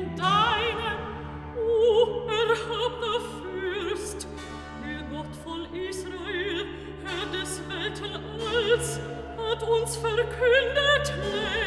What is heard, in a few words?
Recitativo Cyrus, Daniel; Act III; Sprich, ehrwürdiger Seher; Handel Masterworks; Klassika